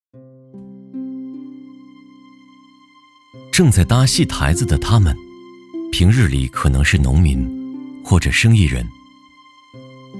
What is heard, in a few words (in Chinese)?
男 纪录片 人文历史; 公仔戏